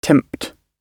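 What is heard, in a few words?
"tempt", British English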